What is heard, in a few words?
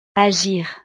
"agir", francés